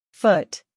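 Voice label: "Foot", inglés; Relaciona Palabras en Ingles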